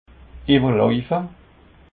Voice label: "ewerlauefe", alsace

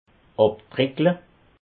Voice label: "abtreckle", alsace

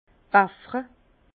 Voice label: "baffre", Bas Rhin; vin; beaucoup boire

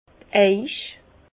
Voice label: "eich", Bas Rhin

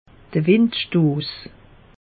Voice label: "windstoss", alsace; meteo

